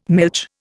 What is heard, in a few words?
"milch", Indian